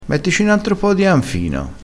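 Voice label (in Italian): "canfino", vocabolario dialettale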